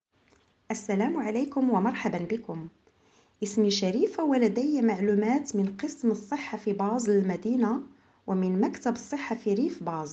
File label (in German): arabisch; kantonsarztlicher dienst; medizinische dienste; informationen in verschiedenen sprachen